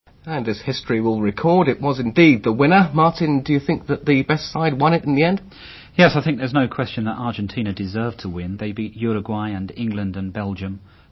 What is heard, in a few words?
English